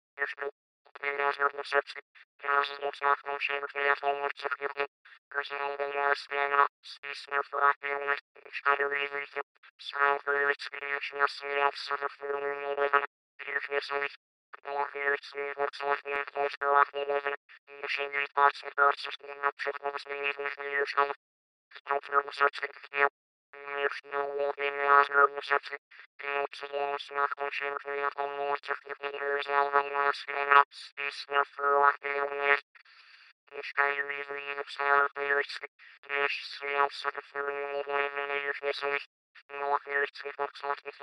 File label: Alien Voice On Radio Transmission; Sci-Fi Voice